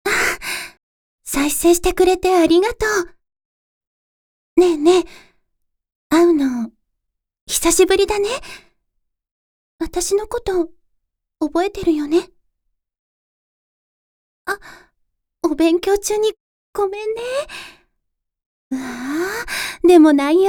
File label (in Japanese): PRボイス